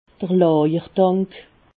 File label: "lajertank", alsace